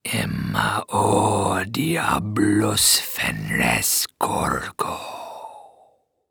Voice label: Horror Scary Demon Speak

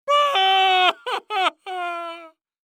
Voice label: Cartoon Loud Voice "Boo Hoo"; AdobeCC

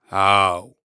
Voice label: "How", Human Indian Voice

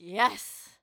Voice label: Human Female Yes

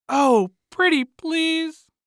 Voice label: Cartoon Voice Oh Pretty Please Male

